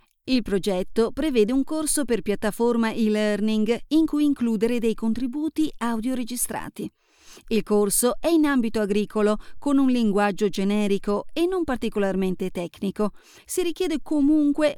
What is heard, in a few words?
Corporate